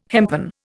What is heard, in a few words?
"hempen", Indian